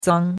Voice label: "zang1", chinois; Cours de Chinois

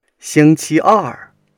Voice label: "xing1 qi1 er4", Chinese

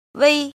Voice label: "jin", Chinese

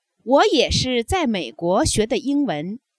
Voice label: adverb, Chinese

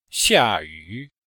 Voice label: "xia4 yu3", chinois; Cours-de-Chinois

